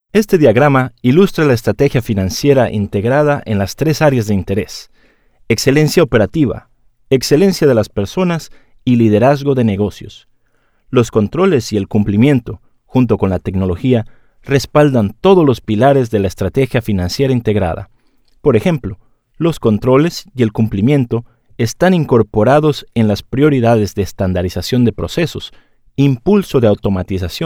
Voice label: elearning vo, spanish